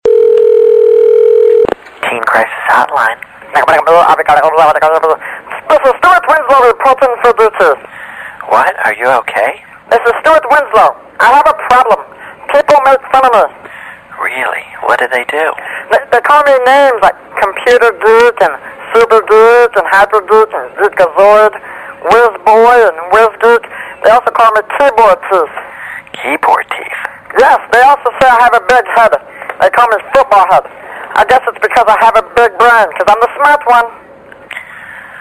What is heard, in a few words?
telephone